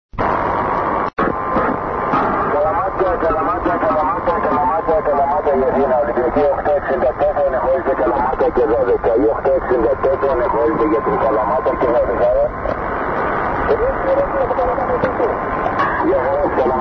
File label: kalamata aer wkg athinai 5637 khz